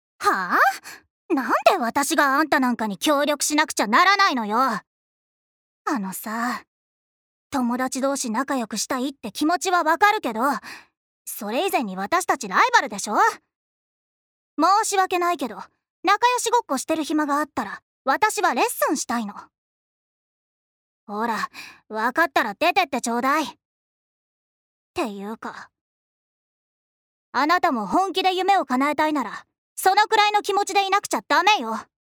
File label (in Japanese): アニメ